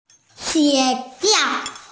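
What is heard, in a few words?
"school", hearing impaired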